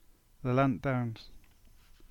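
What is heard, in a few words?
"Lelant Downs", English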